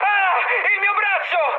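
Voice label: Italian damaged pilot mood high, speech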